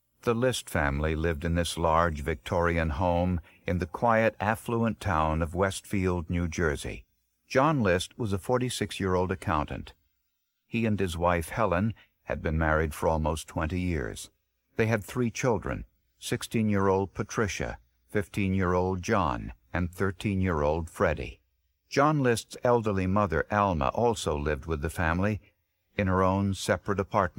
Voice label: vocals; professional voice isolation; UVR5; ubuntu